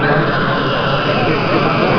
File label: distress, babble, sad